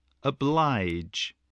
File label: "oblige", voice, spelling